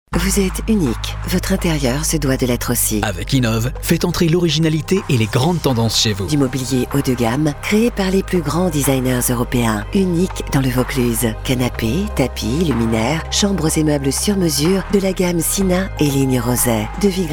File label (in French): Pub TON SOFT, voix off